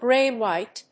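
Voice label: "gray-white", English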